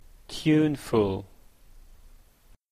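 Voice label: "tuneful", Canadian English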